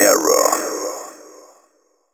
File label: techno male 140; Vocals